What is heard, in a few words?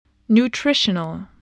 "nutritional", voice, spelling